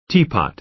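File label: "teapot", American English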